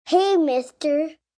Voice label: Hey Mister Kids, child, girl; Talking Sound Effects